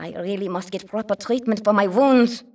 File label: Female; baldurs1